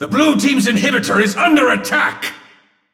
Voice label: Announcer